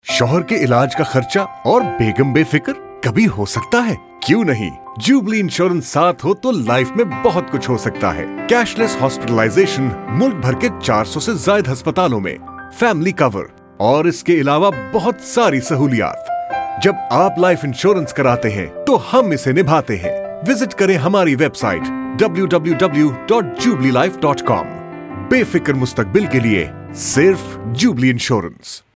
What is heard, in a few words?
Hospital Spot; Jubilee Health Cover